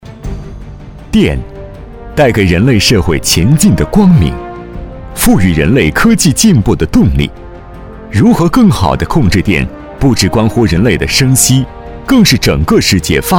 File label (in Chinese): 男国 专题 党建 稳重; 大全电气